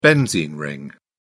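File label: "benzene ring", English, British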